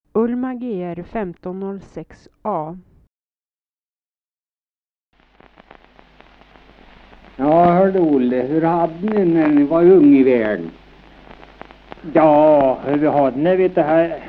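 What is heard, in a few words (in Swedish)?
Tuna Medelpad, dialekter; Folkminnen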